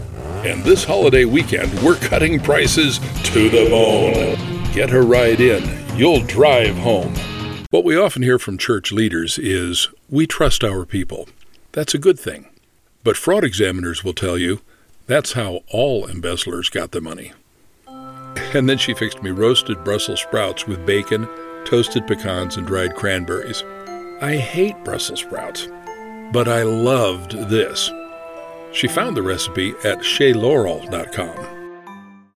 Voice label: English Voice Over

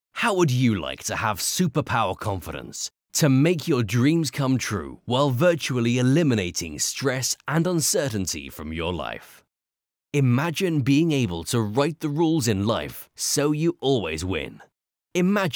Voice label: English Voice Over

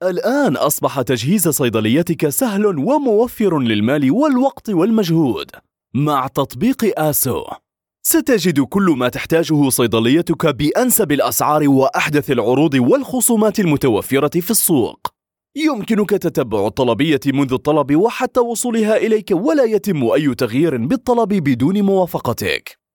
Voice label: Arabic advertising voiceover

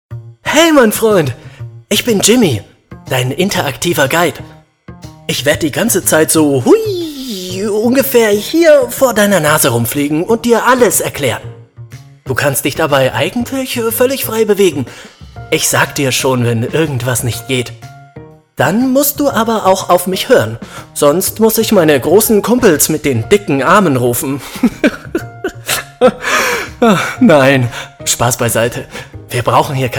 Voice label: Crazy Funny

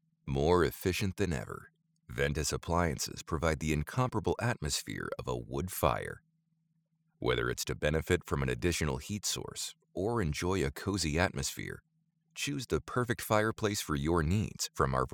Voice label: English voice over documentary

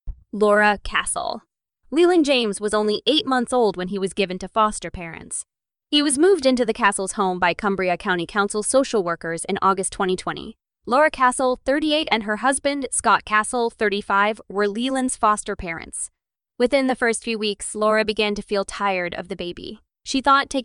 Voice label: English Voice Over Sample

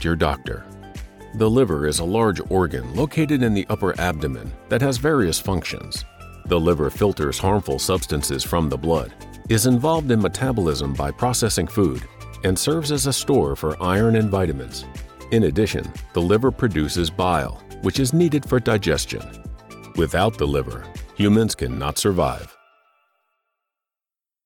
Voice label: Medical Voiceover Hepatitis

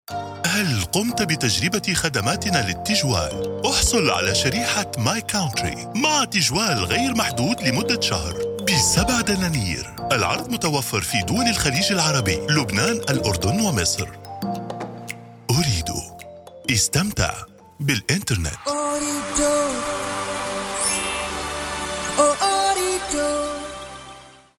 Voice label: Arabic voice over Fast and energetic ads

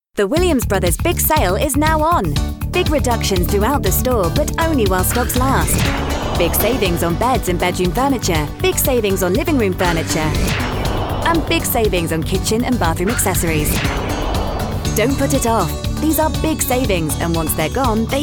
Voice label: English Voice Over

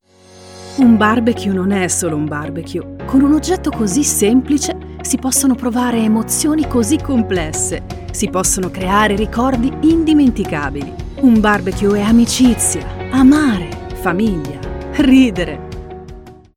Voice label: Joyful

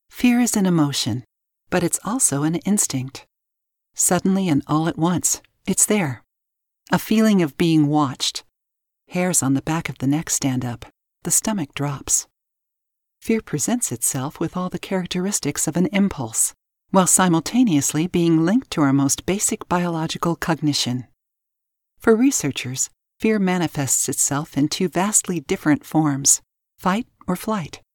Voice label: English Voice Over

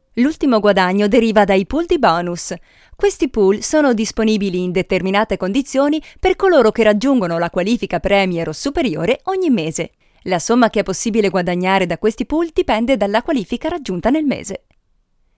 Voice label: italian voiceover corporote presentation

